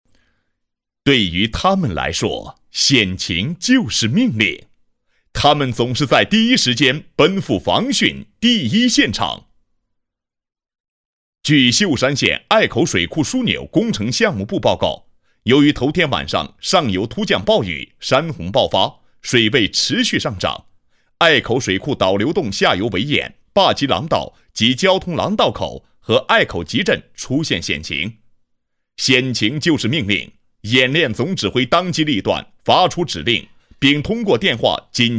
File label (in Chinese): 专题-抢险激情, 男; 新闻广告专题颁奖; 抢险激情录音